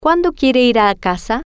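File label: "Cuando Quiere Ir Casa", Spanish, female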